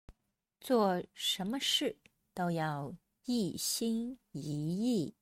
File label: denoised